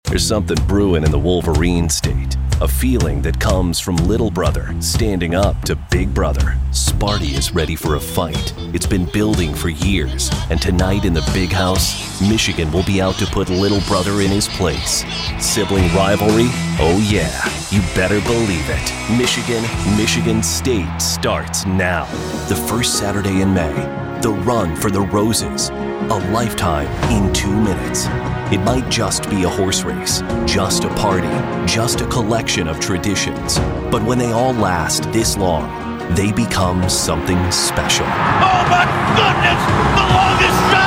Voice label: promo men sports

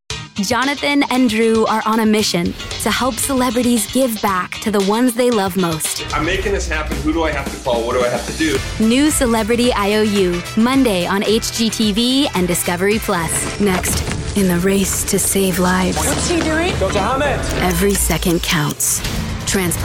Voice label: promo women

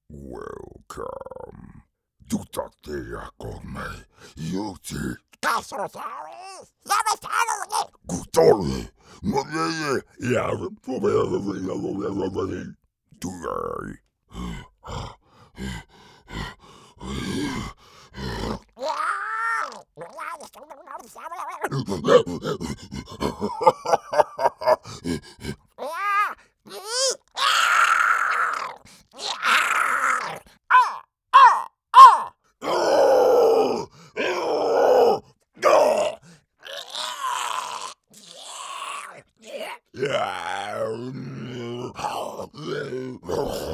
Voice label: animation men creature

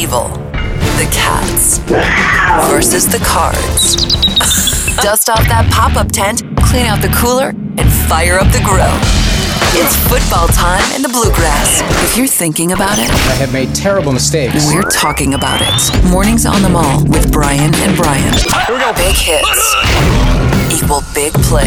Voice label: radio news sports; radio imaging

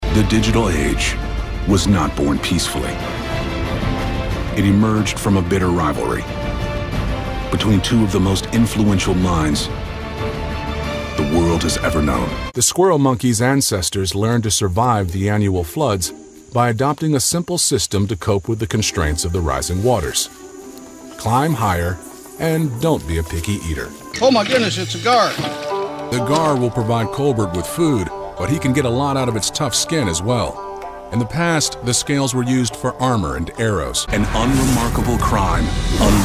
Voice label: narration male

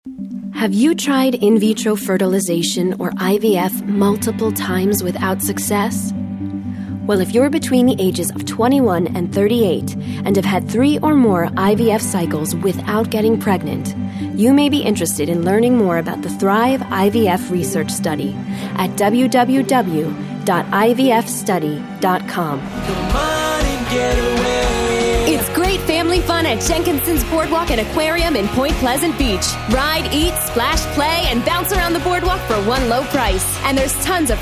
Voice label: commercial, commercial women

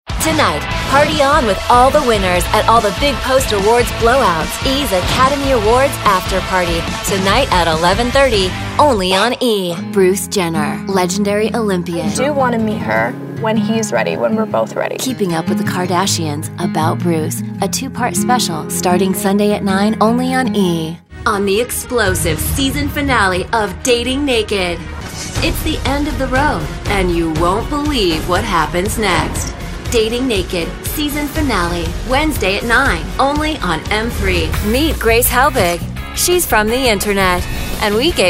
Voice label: promo women

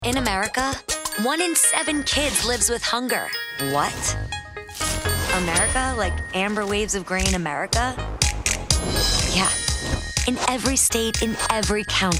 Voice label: promo women

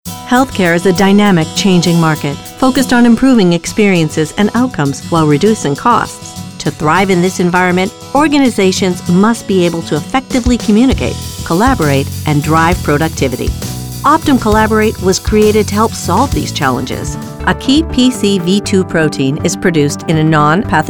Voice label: narration women

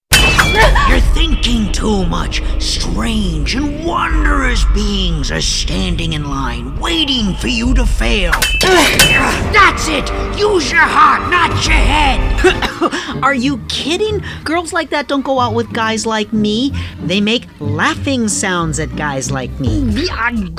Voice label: animation men; Creatures

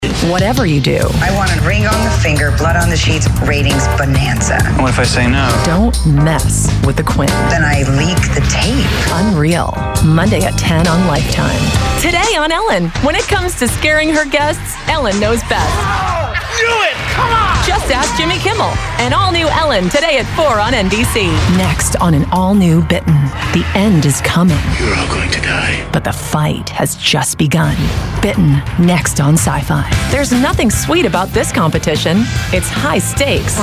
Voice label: promo women

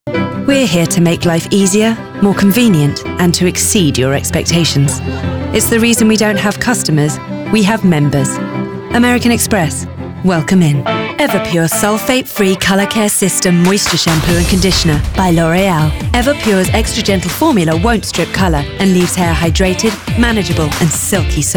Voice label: languages women british

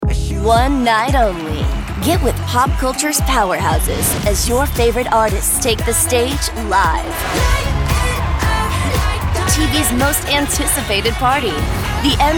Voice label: promo women

